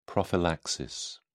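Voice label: "prophylaxis", British English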